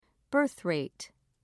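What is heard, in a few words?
"birthrate", British English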